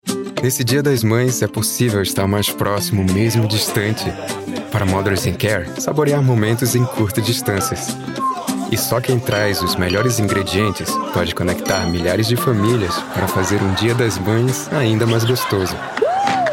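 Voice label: Brazilian Portuguese commercial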